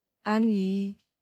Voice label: "Ali", دختر; دختر که میگه علی